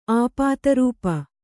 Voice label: "ಆಪಾತರೂಪ", Kannada

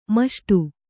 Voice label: "ಮಿಷ್ಟ", Kannada

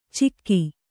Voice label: "ಚಿಕ್ಕಿ", Kannada

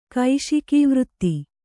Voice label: "ಕೈಶಿಕೀವೃತ್ತಿ", Kannada

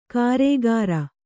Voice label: "ಗಾರೆಕಾರ", Kannada

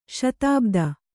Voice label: "ಶತಾಬ್ದಿ", Kannada

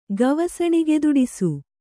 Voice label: "ಗವಸಣಿಗೆದೊಡಿಸು", Kannada

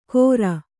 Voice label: "ಘೊರ", Kannada

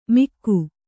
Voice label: "ಮಿಕ್ಕು", Kannada

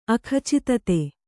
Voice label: "ಅಖಚಿತತೆ", Kannada

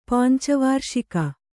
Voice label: "ಪಂಚವಾರ್ಷಿಕ", Kannada

